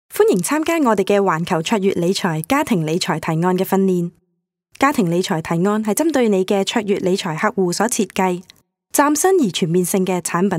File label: Cantonese Corporate Clean VO